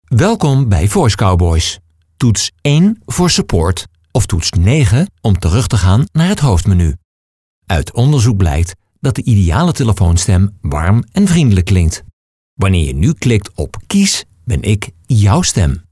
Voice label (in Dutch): telefoon